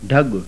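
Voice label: voiced aspirated retroflex, sindhi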